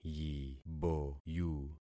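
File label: "yiboyu", concatenated syllables; Affixing experiment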